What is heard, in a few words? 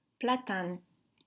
"Platane", French